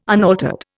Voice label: "unaltered", Indian

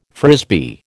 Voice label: "Frisbee", American

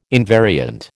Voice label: "invariant", American

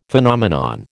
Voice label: "Phenomenon", American

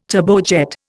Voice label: "turbojet", Indian

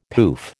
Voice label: "poof", American

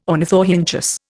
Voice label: "ornithorhynchus", Indian

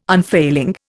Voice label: "unfailing", Indian

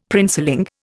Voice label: "princeling", Indian; Dictionary

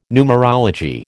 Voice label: "numerology", American

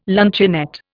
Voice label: "luncheonette", Indian

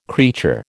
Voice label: "creature", American

